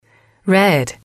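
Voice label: "red", English, female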